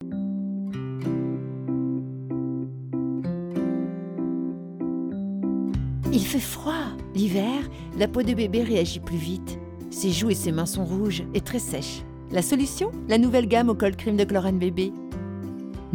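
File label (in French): Film Web Maman narrative, voix off féminine; Klorane Bb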